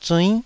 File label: "zuinn9", taiwanese